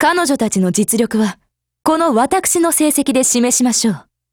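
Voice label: Japanese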